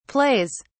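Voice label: "plays", British English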